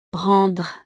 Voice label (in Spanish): "rendre", frances